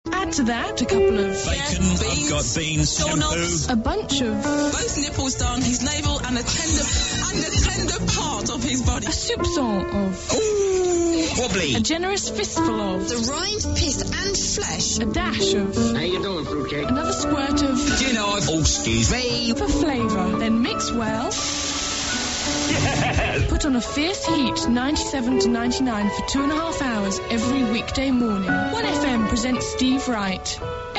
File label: trailer in a ad stylee; steve wright; sainbury's